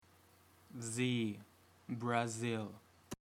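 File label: "Z", English alphabet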